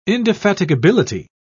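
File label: "indefatigability", British English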